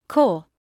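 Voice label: "core" English, British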